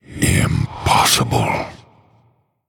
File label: Male Human